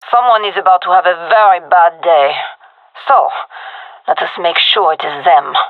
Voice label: round start, gendermerie fem; vo